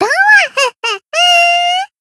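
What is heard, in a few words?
Voice finish, Japanese; loveli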